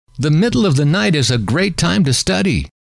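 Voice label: the Middle Of The Night Is A Great Time To Study, male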